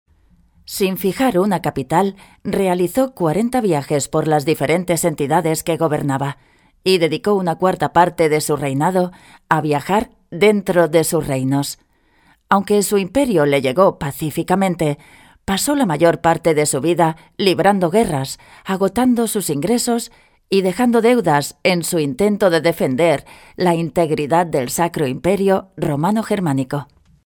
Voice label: locutora espanola spanish voiceover, locutora catalana, Catalan voice over; locutoras; Escena Digital